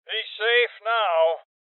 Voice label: Male Old; Cop Evacuated

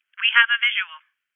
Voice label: Voices Enemy Spotted Female

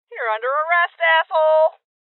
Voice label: Order To Surrender Female, Voices

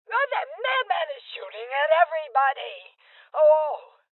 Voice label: Female Old; rebel cops 2019 gamerip

